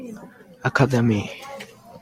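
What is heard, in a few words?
"academy", Japanese, male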